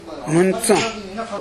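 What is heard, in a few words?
"muntun", Minamiyamagata dialect Japanese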